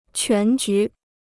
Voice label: "全局", Chinese

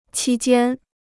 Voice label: "期间", Chinese

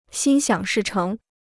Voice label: "心想事成", Chinese

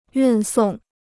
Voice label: "运送", Chinese

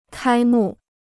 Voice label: "开幕", Chinese

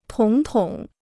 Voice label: "统统", Chinese

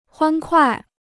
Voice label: "欢快", Chinese; huān kuài; cheerful and lighthearted; lively

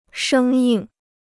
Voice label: "生硬", Chinese

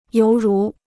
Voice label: "犹如", Chinese

